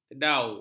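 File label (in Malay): "kedau", Malay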